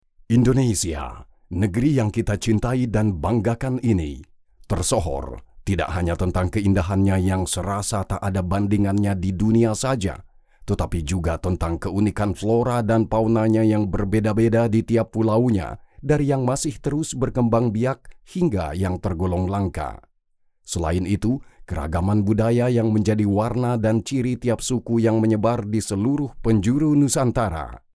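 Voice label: Indonesian, male, corporate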